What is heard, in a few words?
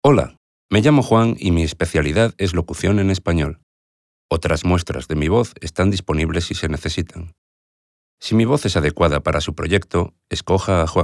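Spanish Spain, voice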